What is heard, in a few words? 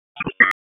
Raleigh Police Northeast District Tac, p25; wake